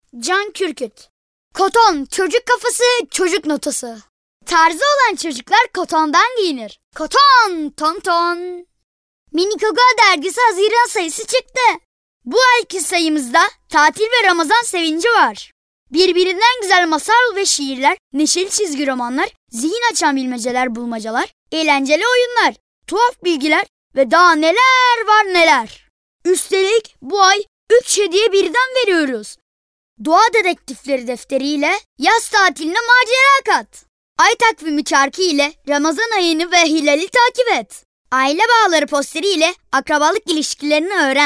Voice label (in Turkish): Reklam